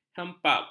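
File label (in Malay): "hempap", Malay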